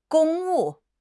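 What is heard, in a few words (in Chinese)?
"公务", Chinese; HSK; HSK6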